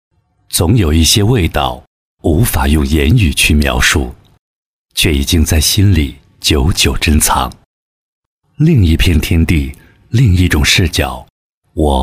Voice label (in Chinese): 自然品质风格